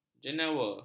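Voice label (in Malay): "jenewer", Malay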